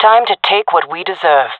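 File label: round start, female voice; jungle; Counter Strike Model Pack; CS:GO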